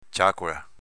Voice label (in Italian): "ciacola", dialetto veneto; s.f. Parlantina